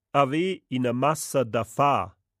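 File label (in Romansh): haver ina massa da far, Rätoromanische Hörprobe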